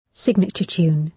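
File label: "signature tune", British English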